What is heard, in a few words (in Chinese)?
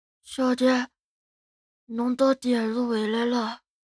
男孩 对话